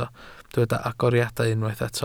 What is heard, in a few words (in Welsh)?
"agoriadau", Welsh